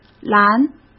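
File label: "蓝", Mandarin Chinese; chinese-flashcards; hsk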